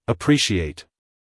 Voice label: "appreciate" US English, male; everyone-can-use-english

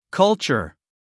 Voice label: "culture", US English, male; everyone-can-use-english

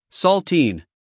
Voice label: "saltine", US male; everyone-can-use-english

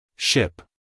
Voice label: "ship" us male; everyone-can-use-english

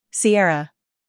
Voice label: "Sierra" us

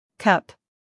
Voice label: "cup" en us female; everyone-can-use-english

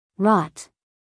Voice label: "rot", us female

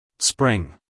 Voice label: "spring", us male; everyone-can-use-english

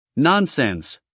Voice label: "nonsense", US English, male

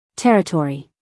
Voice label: "territory", us female

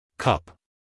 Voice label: "cup", US English, male; everyone-can-use-english

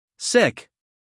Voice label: "sick", US male

